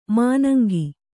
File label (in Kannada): "ಮಾನಂಗಿ", Kannada; mānangi; Noun; a small water-well.